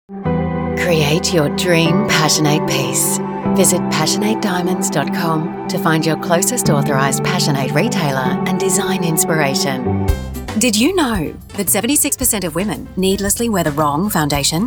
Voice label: radio, voiceover; abc homes